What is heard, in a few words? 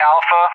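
"Alpha", English; JTAC; Alphabet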